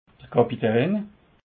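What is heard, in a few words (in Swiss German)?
"kapitain", Haut Rhin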